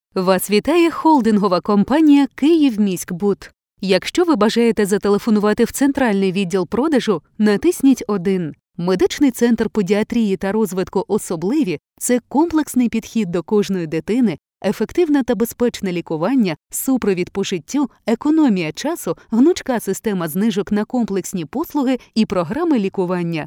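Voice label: Ukrainian female voice over, narrative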